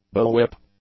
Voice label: "bullwhip", English

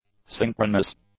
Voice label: "synchronous", English; adjectives

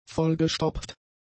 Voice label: "vollgestopft", German; adjectives

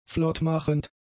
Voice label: "flottmachend", German; adjectives

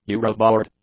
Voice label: "euroboard", English

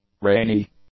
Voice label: "rainy", English; adjectives

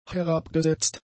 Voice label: "herabgesetzt", German; adjectives

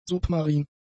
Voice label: "submarin", German; adjectives

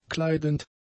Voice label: "kleidend", German; adjectives

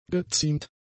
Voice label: "geziemt", German; adjectives

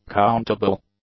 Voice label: "countable", English; adjectives

